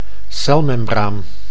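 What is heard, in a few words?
"celmembraam", Dutch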